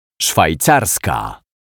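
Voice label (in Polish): "szwajcarska", Polish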